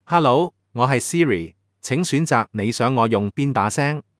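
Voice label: Hong Kong Chinese; zh-HK; iOS Sounds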